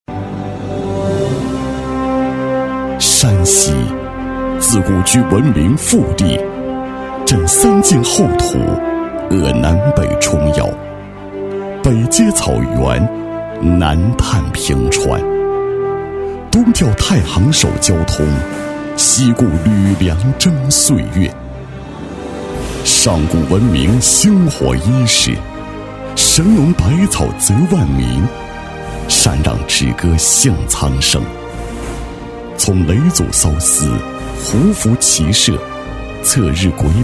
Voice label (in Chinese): 男 大气浑厚, 厚重大气; 山西省机器人产业发展